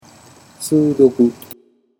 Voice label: "sudoku", Japanese